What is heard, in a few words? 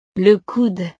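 "le coude", French; partes del cuerpo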